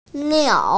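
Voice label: "niao3", Mandarin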